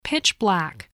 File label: "pitch-black", voice, English